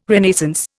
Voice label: "Renaissance", Indian